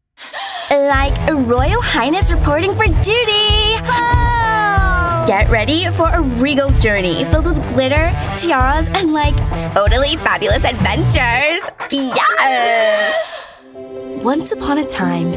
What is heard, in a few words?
Character